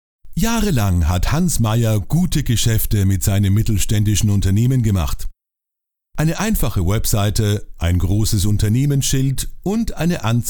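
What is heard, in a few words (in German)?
Erklärfilm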